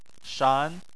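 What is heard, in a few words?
"shan" tone 2, Chinese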